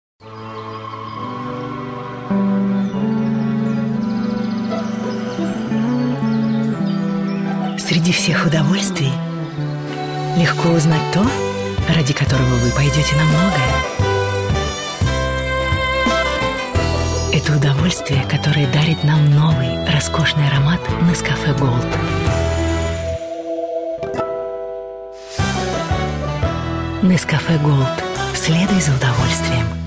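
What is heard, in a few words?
Russian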